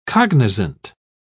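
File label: "cognisant", British English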